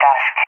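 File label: "Tusk", English, SPAIN; CallSign; DCS; Allied Flight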